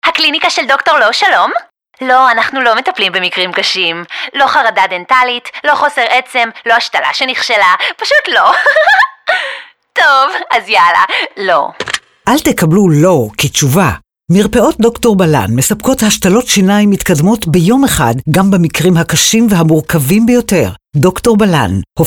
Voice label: hebrew animation